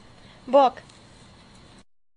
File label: Phone hello